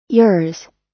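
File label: "yours", US English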